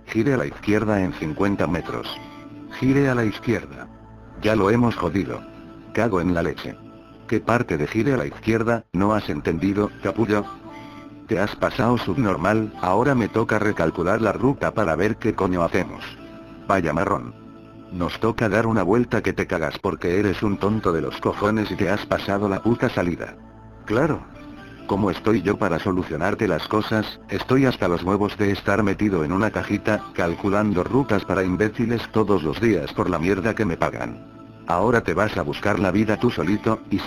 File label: GPS TomTom Go